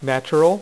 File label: "natural", dealer's speech; PowerPOKER Demo